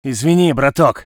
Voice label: voice sov infantry

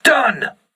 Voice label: voice message enemy destroyed, crew ships english

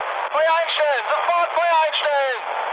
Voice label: player friendly fire, mood med, speech ge; War Thunder

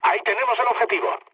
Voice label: near waypoint, mood med, speech; War Thunder

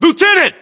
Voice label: lieutenant, battlechatter, english, us

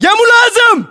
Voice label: rank lieutenant, localized english battlechatter

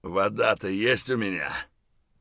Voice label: dehydrated; Escape from Tarkov

